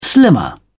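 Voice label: "slimmer", British English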